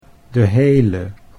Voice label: "de hele", Dutch

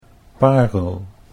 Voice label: "parel", Dutch; pearl

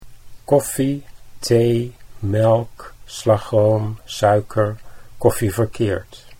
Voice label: "koffie thee", Dutch

